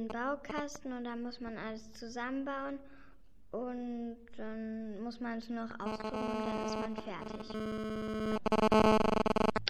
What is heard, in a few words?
comic